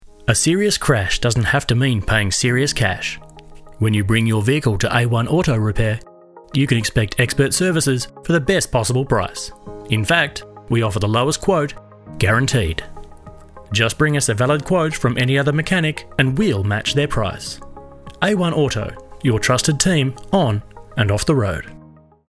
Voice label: Radio Commercials / Auto Repairs - Authoritative, Natural Male Voice; A1 Auto